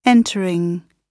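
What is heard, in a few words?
"entering", British English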